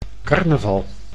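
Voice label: "carnaval", Dutch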